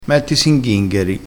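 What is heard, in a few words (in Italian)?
"ghingheri", vocabolario dialettale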